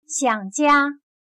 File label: "想家", Chinese